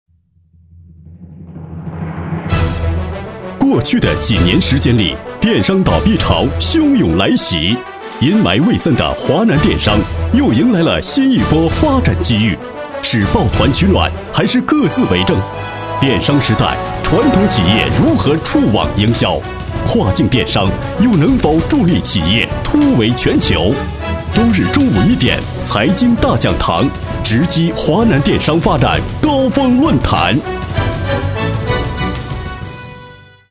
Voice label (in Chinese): 男 专题 栏目片头, 国语男声配音 稳重专题